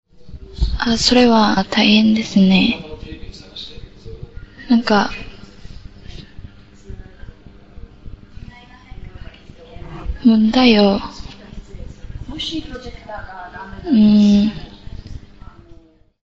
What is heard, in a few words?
Japanese Return Telephone Call